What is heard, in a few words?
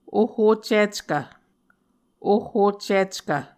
"ohhoccickv", Muscogee; Mvskoke Words with Repeated Consonants; A Dictionary of Creek/Muskogee; SIL International